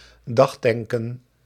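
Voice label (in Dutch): "dagtanken", Dutch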